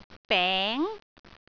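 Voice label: "beng2", Cantonese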